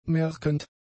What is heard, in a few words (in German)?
"merkend", German; adjectives